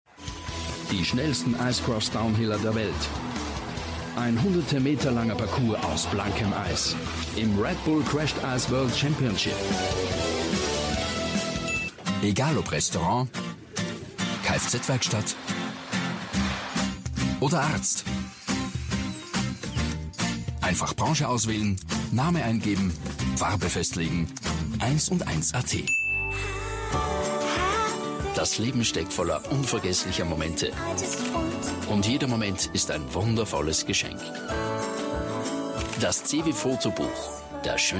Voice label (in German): Sprachprobe Werbung